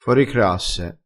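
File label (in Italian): "foricrasse", dialetto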